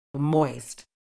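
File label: "moist", British English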